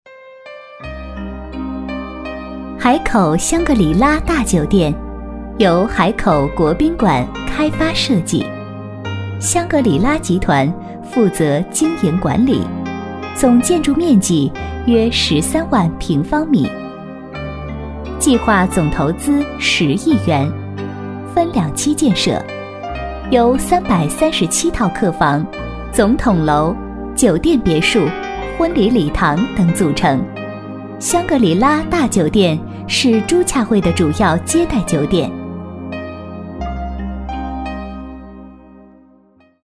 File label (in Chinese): 多媒体 项目规划, 女国; 香格里拉